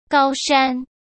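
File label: "高山", Mandarin Chinese; chinese-flashcards; hsk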